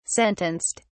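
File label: "sentenced", British English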